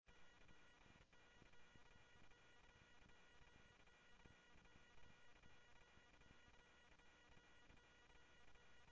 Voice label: Scottsdale Police, scanner audio

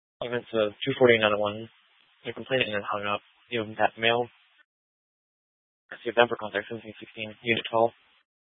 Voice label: Phx PD Dispatch, scanner

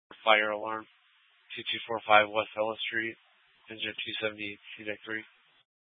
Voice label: FD Dpts VHF 156.240 Patch

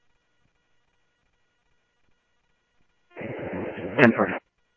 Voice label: Interop Mutual Aid, scanner audio

